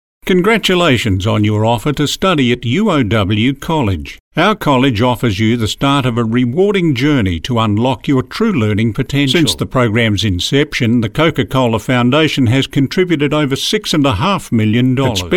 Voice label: Natural